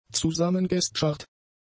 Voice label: "zusammengeschart", German; adjectives

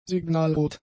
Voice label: "signalrot", German; adjectives

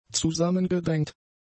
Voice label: "zusammengedrängt", German; adjectives

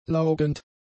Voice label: "laugend", German; adjectives

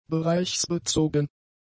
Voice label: "bereichsbezogen", German; adjectives

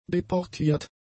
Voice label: "deportiert", german; adjectives

